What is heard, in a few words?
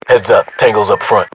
uk threat infantry generic, battle chatter, English